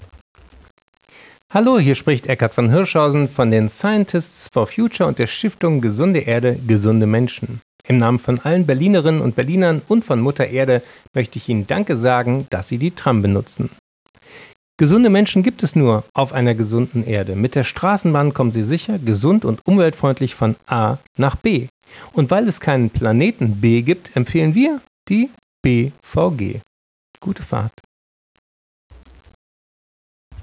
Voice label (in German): KlimaTram Ansage; BVG